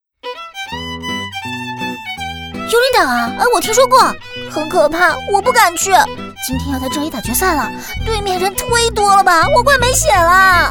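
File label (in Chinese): B女『游戏角色』萝莉搞怪; 幽灵岛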